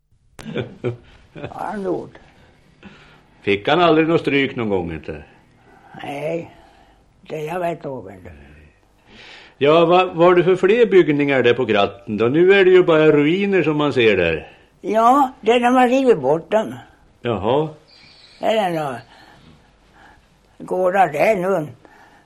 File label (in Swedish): Torsåker, dialekter; Folkminnen; Svenska sagor